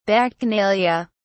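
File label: "bacchanalia", British English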